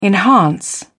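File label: "enhance", British English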